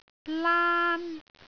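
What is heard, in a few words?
"laan1", Cantonese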